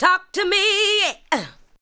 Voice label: ADLIBS 90; Ueberschall; Jam Box